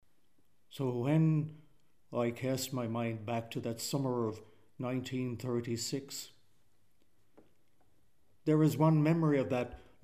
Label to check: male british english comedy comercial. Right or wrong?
Wrong